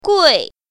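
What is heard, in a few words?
"gui4", Mandarin Chinese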